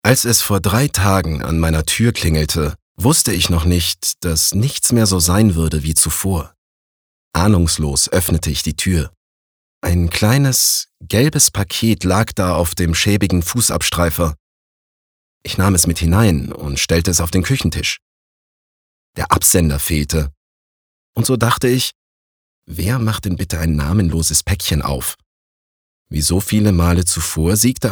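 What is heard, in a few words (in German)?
Schauspiel Freundlich; Unverhofft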